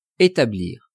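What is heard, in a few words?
"etablir", French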